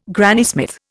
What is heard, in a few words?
"Granny Smith", Indian